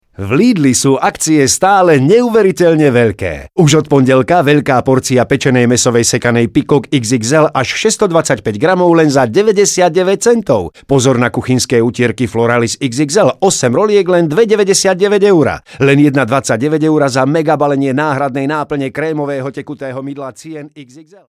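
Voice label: Slovak, male, commercial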